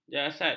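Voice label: "jasad", Malay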